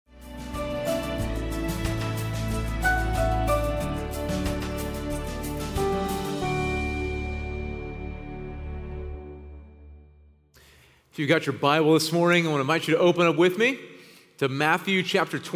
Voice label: Sermon English